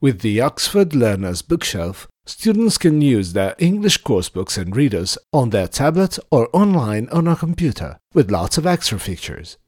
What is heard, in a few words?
English With Accent